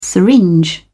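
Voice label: "syringe", British English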